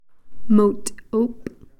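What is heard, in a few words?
"seed-beater", Takelma word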